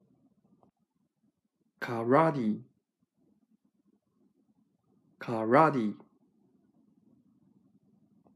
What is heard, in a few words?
karate, pronunciation